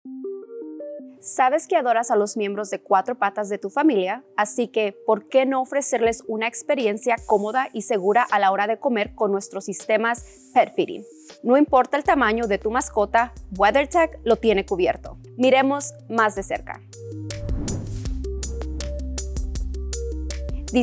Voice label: Pet Feeding System Spanish